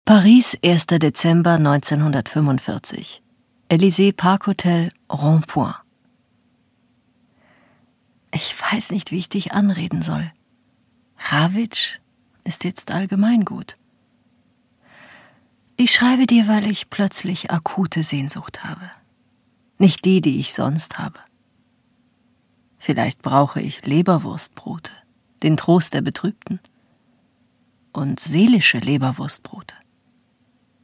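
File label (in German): acting, Sanft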